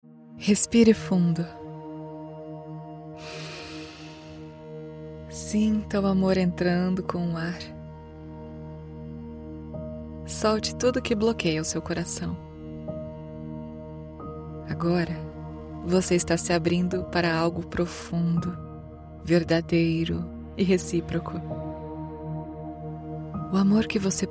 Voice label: Meditation Young Smiling Friendly Romantic Love Brazilian Portuguese